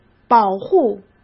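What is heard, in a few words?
"保护", Mandarin Chinese; chinese flashcards; hsk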